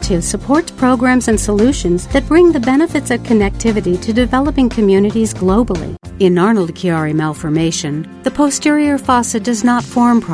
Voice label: Voice Talent Narration